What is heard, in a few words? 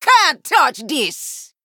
Female Troll Play